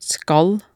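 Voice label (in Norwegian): "skal", Norwegian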